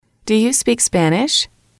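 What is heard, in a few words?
do You Speak Spanish, english, female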